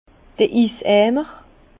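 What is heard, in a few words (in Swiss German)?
"iseimer", Alsace Bas Rhin; vin; seau à glace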